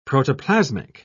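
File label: "protoplasmic", British English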